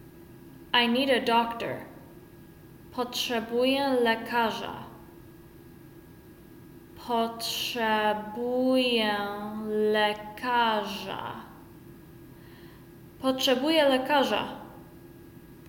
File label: I need a doctor, Polish; the talking polish phrasebook